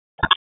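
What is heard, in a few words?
Raleigh Police Northeast District Dispatch; wake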